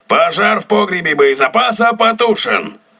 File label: voice message ammunition storage repaired, crew ships russian